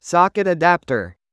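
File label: "socket adapter", English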